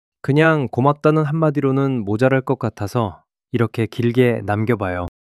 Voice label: shy